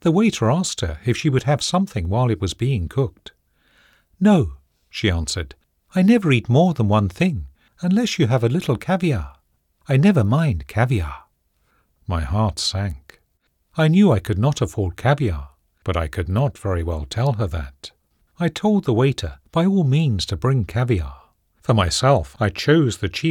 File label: Literature, voiceovers